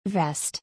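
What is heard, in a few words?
"vest", English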